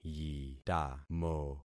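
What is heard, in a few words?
"yitamo", concatenated syllables; Affixing experiment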